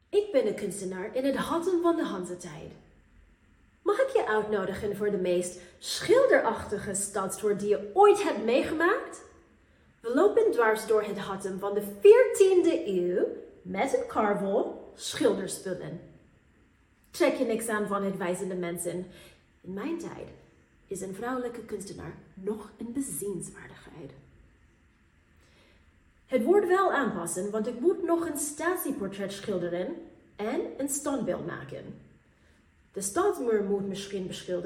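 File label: Dutch, voice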